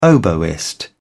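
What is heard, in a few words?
"oboist", British English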